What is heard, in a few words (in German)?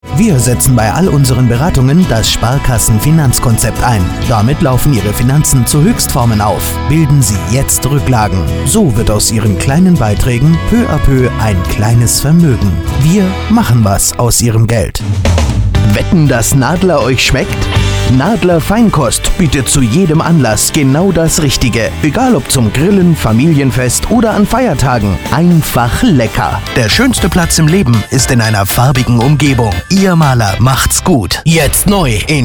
Werbung Medley